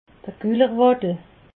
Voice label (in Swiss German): "gullerwaddel", alsace